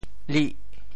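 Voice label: "lih8", Teochew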